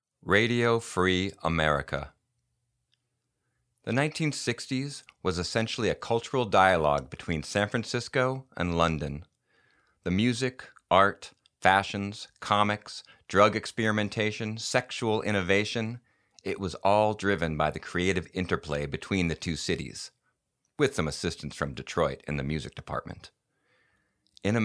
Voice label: Voice Demo